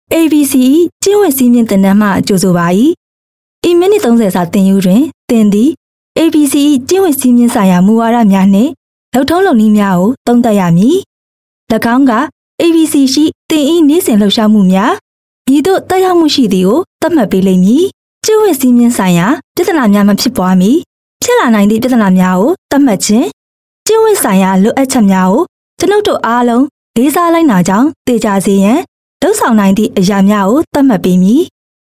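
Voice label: f Burmese E Learning, female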